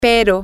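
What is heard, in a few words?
but, spanish, female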